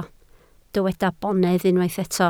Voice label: "bonedd", Welsh

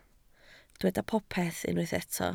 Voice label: "popeth", Welsh

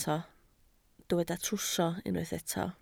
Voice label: "trwsio", Welsh

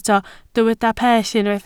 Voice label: "pell", Welsh

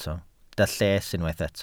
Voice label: "lles", Welsh

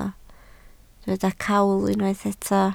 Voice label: "cawl", Welsh

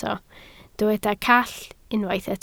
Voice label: "call", Welsh

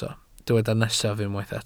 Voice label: "nesaf", Welsh

